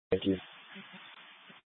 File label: Fire Departments, scanner